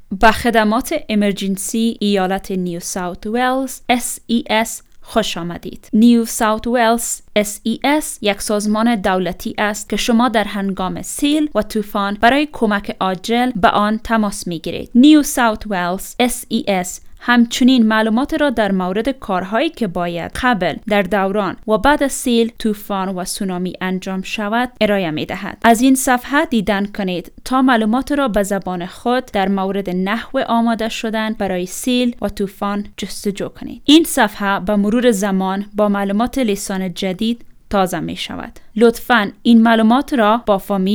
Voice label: dari

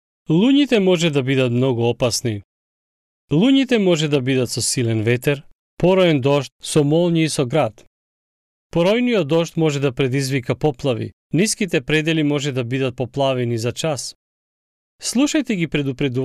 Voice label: macedonian stormsafe audio

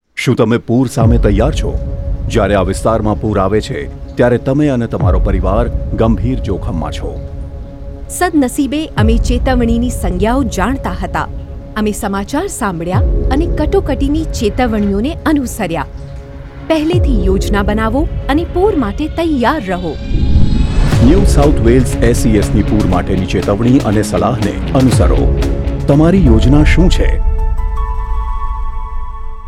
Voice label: radio ad whats your plan gujarati

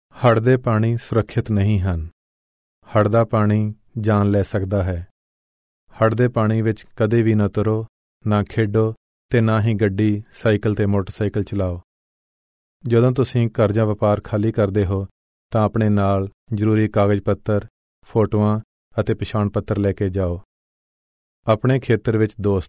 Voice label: punjabi floodsafe audio

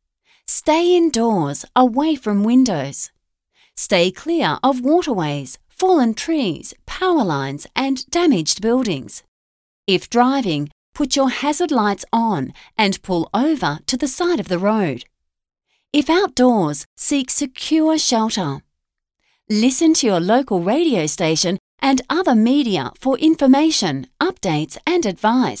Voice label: stormsafe vo during a storm

